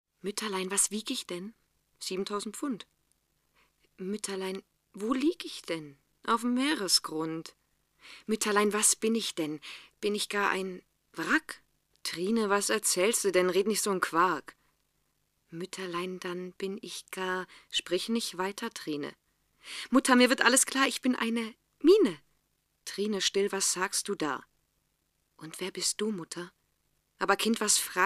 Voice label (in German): hoerprobe heiteres gedicht